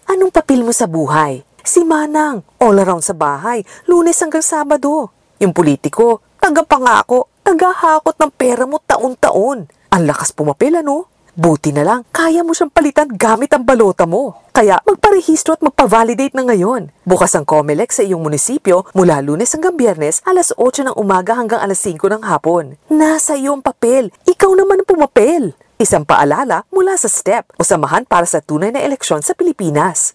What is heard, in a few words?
radio ad Tagalog voiceover; 30 sec